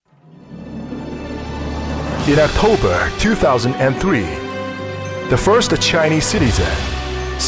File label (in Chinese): 英语男, 宣传片; 新国家